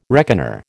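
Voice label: "reckoner", American English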